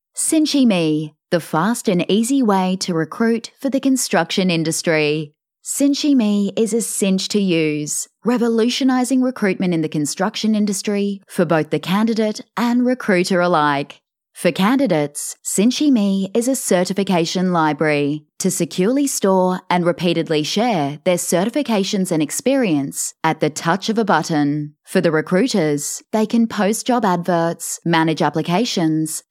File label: English Voice Over Sample